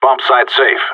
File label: bombsite clear, radio; GSG9